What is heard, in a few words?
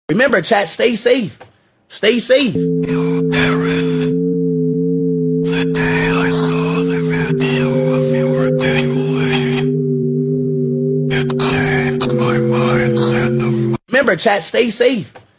scared; ishowspeed